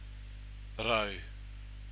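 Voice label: "rau", Māori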